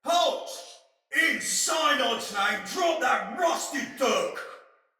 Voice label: See Player, Soldier Male; Battle; Barks; Dialog; Sinecure Soldier Male B